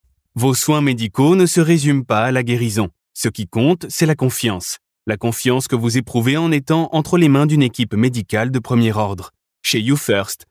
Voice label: Sincere